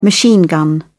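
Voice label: "machine gun", British English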